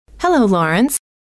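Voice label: "hello", female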